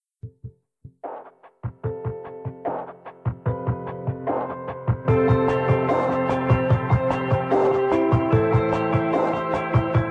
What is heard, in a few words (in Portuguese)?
DIA DOS PAIS MAE COMO PAI, fem; Telemensagens 2017